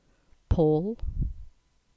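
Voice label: "Paul", English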